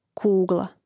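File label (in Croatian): "kugla" Croatian; Mrežnik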